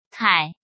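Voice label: "Kǎi", Chinese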